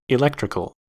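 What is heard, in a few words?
"electrical", US English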